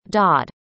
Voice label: "daud", arabic; indonesian boy names